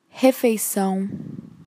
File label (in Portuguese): "refeição", Portuguese